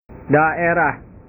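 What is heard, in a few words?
"daerah", Indonesian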